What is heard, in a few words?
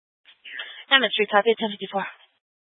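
Fire Dispatch, Scanner audio recording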